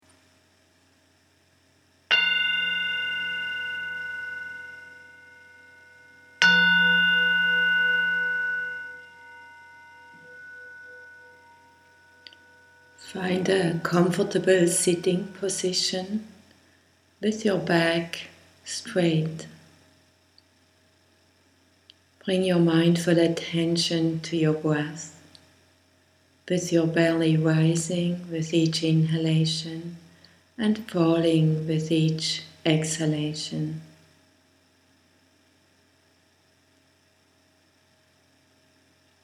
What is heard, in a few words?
Meditation English